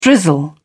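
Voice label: "drizzle", British English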